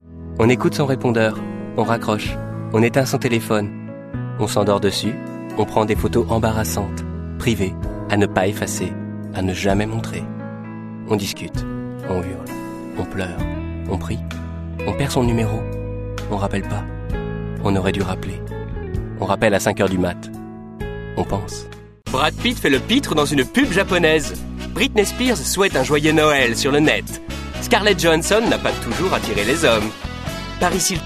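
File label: French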